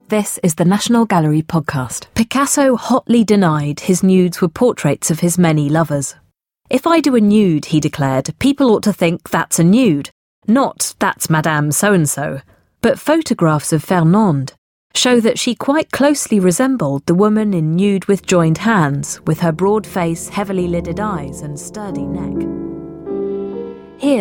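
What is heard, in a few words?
English South Africa, General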